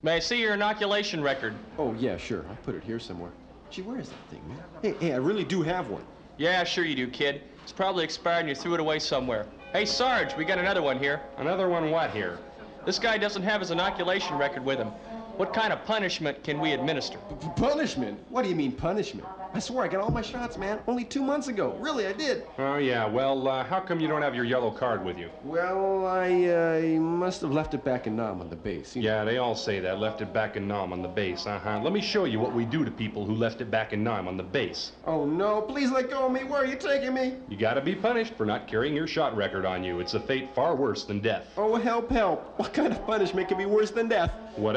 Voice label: Radio Spot: Innoculation Advisory And Bumper Music; Mono